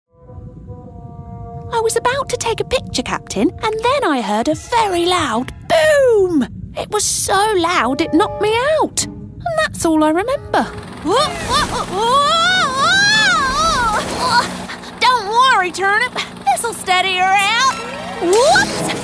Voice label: Animation